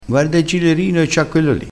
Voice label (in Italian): "gilerino", vocabolario dialettale